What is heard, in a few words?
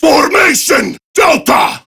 Attack Prime Grineer Male; Tau; Battle Barks